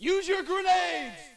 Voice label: us use grenades; dod; dod 1.3; dod enhancement pack